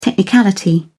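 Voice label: "technicality", British English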